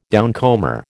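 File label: "downcomer", American English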